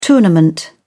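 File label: "tournament", British English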